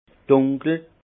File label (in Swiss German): "dunkel", alsace; vin; sombre